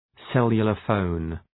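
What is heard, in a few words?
"cellular phone", English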